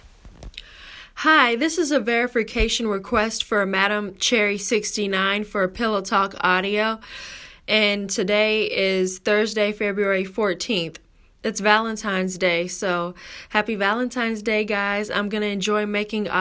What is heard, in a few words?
Verification, Female; PTA Verification